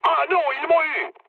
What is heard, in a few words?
aircraft destroyed mood med, speech fr